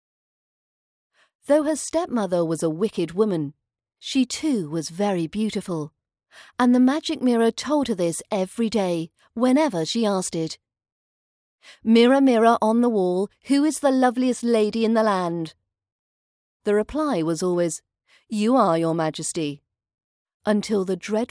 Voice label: snow white fairytale british accent pronunciation practice